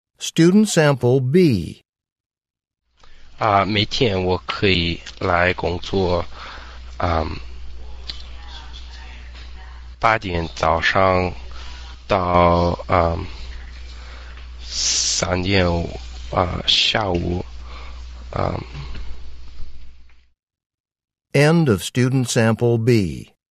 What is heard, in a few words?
chinese conversation